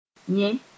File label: "yẽ", Mooré; he